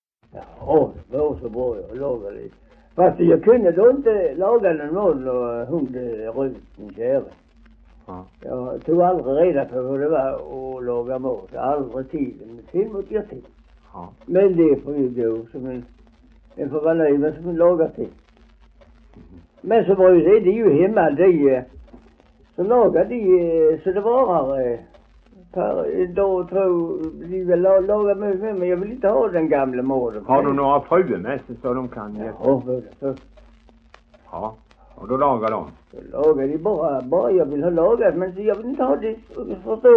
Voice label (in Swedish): Veinge HALL, dialekter; Folkminnen